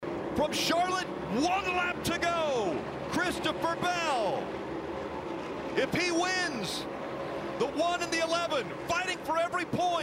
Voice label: ANNOUNCER NASCAR